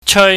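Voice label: "cheui", Cantonese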